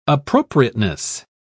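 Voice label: "appropriateness", British English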